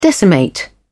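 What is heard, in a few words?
"decimate", British English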